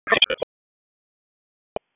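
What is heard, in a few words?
EMS DISP, radio; wake; p25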